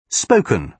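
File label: "spoken", British English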